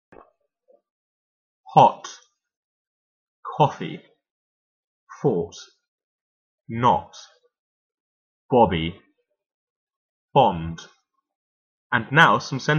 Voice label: rp se accent